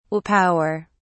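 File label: "will power", British English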